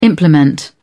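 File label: "implement", British English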